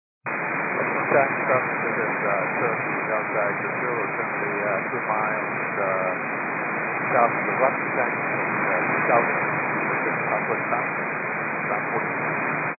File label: 122.925 MHz; scanner radio